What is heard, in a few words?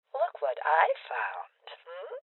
Voices Loot Spotted Female Old; rebel cops 2019 gamerip